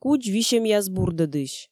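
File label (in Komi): "кудз висьӧмъяс бурдӧдысь", Komi, female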